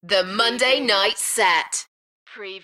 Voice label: UK female; beanstalk-audio